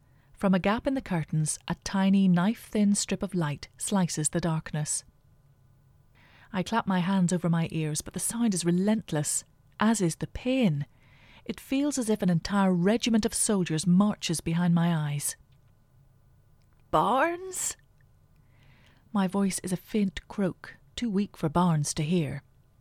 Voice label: short narration